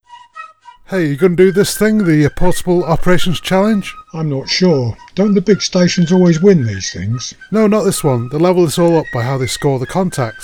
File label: British Blokes